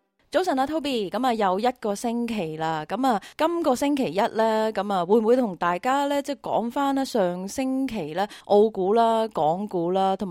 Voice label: cantonese; 綜合分析最新經濟數據 澳洲踏入經濟衰退風險有幾高？; 《寰宇金融》; 富途證券（Moomoo）; SBS Chinese

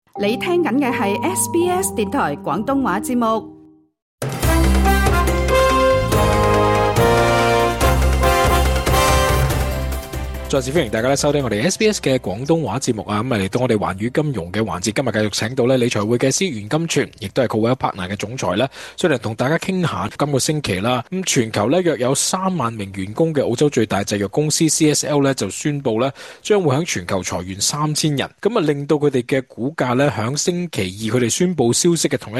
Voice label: cantonese; 【CSL裁3,000人致股價暴跌】醫藥股疫情後欠突破或風光不再？; 澳洲最大的製藥公司 CSL 周二宣布，將在全球裁員 3,000 人; SBS Chinese

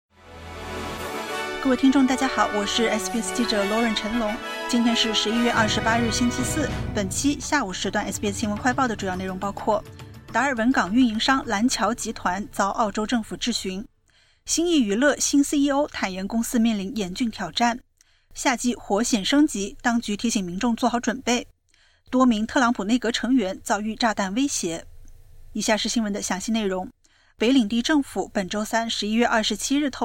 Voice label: mandarin news flash; 达尔文港运营商岚桥集团遭澳洲政府质询; SBS Chinese; SBS新闻快报

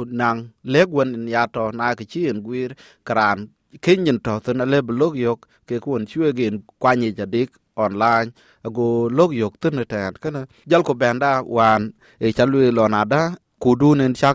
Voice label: dinka; Abaŋ rou tɔ̈ɔ̈u, määc ku ŋiëëc ee wëëu; SBS Dinka

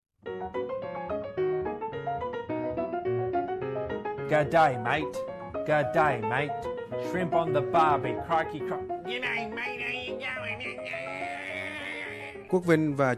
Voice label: vietnamese; mother tongue foster tongue; animal zodiacs

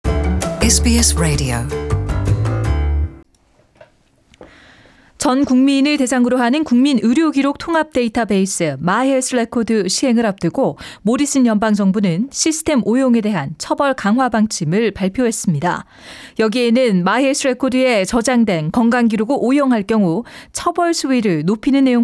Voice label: korean; SBS Korean; Greg Hunt moves to toughen penalties for My Health Record misuse

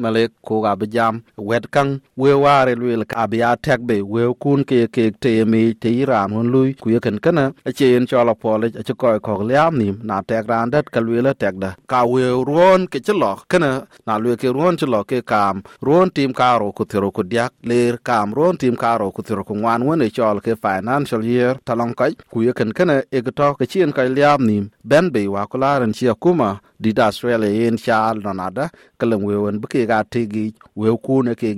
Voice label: dinka; Ye wëu kun ye tɛ̈ɛ̈m bei luui kada ku ye jɔl tɛ nɛ̈n?; SBS Dinka

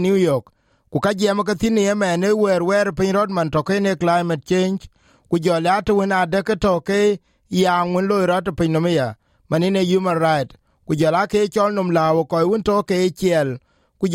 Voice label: dinka, podcast; 'A crisis not seen in a generation' - the UN tackles major issues; SBS Dinka

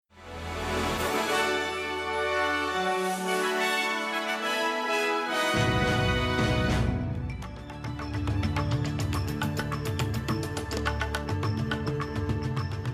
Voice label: gujarati newsflash; ૧૪ નવેમ્બર ૨୦૨૪ના મુખ્ય સમાચાર; SBS Gujarati

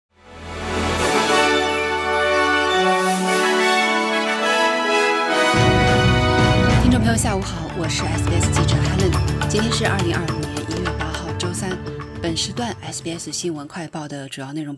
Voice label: mandarin newsflash; 澳洲11月通胀率微升至2.3%; SBS Chinese; SBS新闻快报

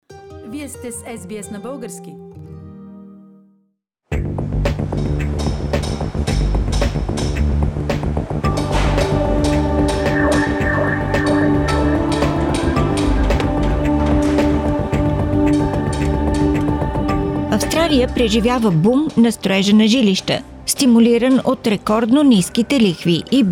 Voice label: bulgarian; Australia is experiencing a residential building boom; SBS Bulgarian; Australians are spending big on building.

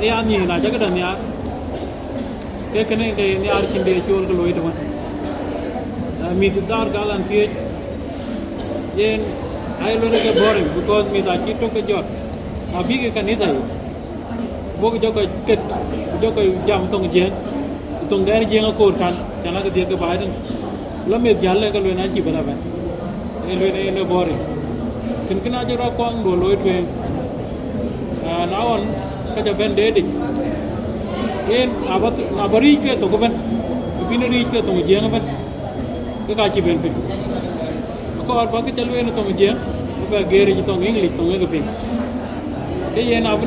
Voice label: dinka; Piööc miith ee Thuɔ̈ŋjäŋ pan Toowoomba; SBS Dinka

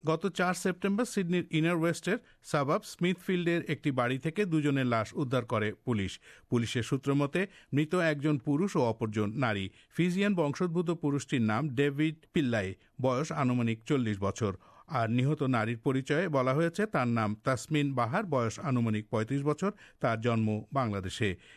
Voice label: bangla; SBS Bangla; A Bangladesh-born woman and her ex-partner were found dead at a house in Smithfield of Sydney

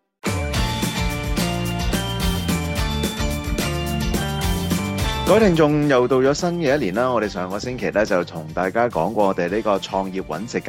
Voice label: cantonese; 【創業搵食GUIDE】巴士維修員自立門戶維修巴士; SBS Chinese

